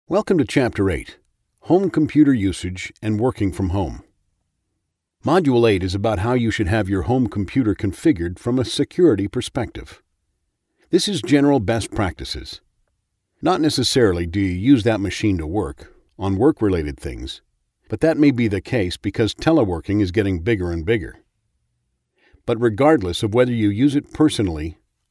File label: english canadian explainer videos